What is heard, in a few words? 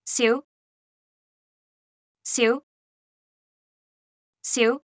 "小", cantonese; Traditional Chinese